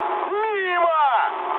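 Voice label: Russian bomb failure mood high, speech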